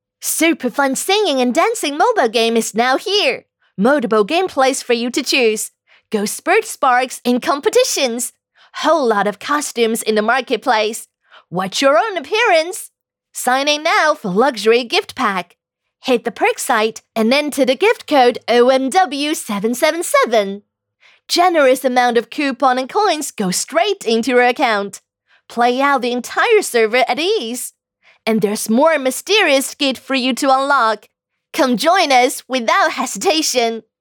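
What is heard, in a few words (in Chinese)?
英信息流女王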